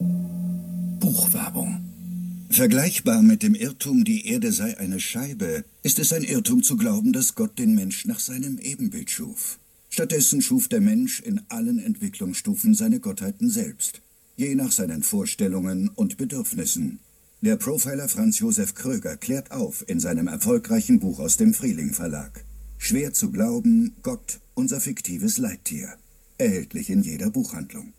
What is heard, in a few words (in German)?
Radiowerbung; bfg erlangen; Schwer Zu Glauben